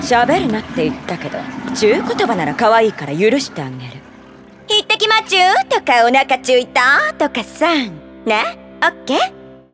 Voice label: Mouse Speak; Anime